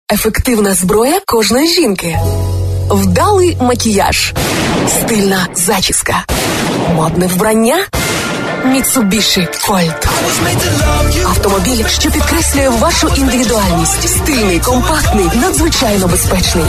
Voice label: locutora ucraniana ukrainian voiceover; Ukrainian female voice over

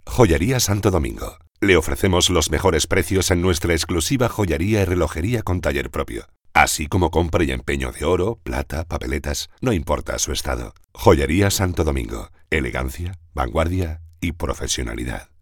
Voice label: spanish voiceover locutor; Spanisch Sprecher

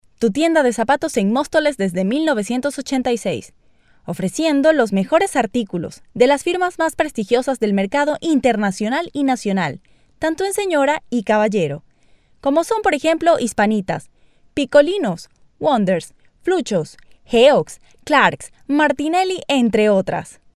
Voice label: locutora venezolana venezuelan voiceover; Venezuelan female voice overs

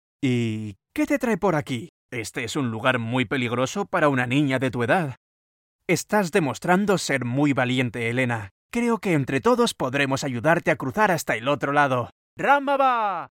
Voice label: locutor spain spanish voiceover

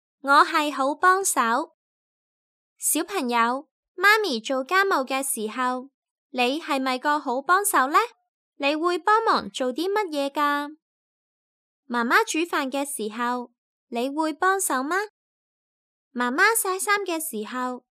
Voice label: chinese cantonese female locutora china; Chinese Cantonese voiceover.

